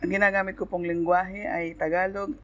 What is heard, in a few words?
tagalog; POP UP Zagreb